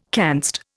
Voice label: "canst", Indian